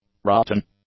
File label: "rotten", English; adjectives